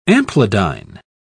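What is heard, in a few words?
"amplidyne", British English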